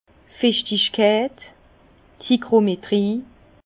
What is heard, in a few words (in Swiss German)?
"fichtigkeit", Bas Rhin; hygrométrie; vin